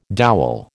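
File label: "dowel", American English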